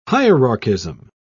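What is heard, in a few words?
"hierarchism", English, British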